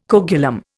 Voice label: "coagulum", Indian

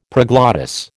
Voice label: "proglottis", American

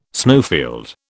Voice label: "snowfield", British

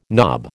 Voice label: "knob", American

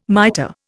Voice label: "miter", Indian

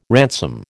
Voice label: "ransom", American

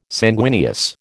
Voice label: "sanguineous", American

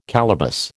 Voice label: "calaboose", American

